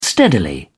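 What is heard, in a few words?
"steadily", British English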